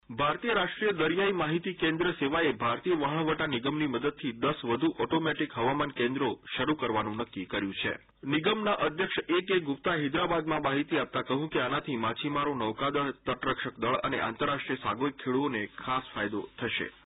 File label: Gujarati